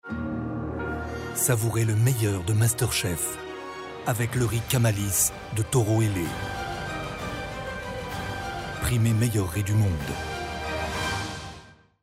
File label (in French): voix chaude droite posée; Taureau Ailé